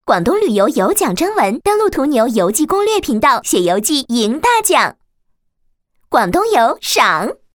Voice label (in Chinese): 女 企业宣传 广告配音; 广东旅游局途牛网